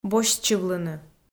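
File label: "босьтчывлыны", Komi, female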